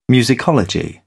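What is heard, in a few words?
"musicology", British English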